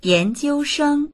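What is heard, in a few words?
"yánjiūshēng" Mandarin Chinese; 研究生; 大学院生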